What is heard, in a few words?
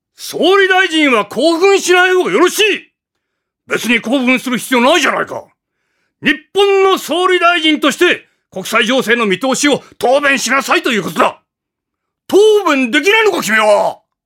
male voice; セリフ